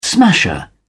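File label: "smasher", English, British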